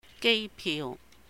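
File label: "gèi piu", Cantonese; air ticket; 機票; holidays and festivals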